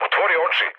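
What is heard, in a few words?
Serbian player friendly fire mood low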